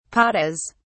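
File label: "patas", British English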